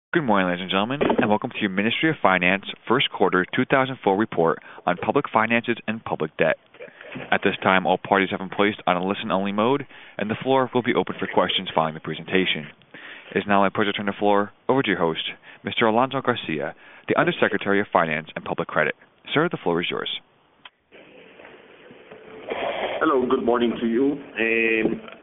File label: Conference Call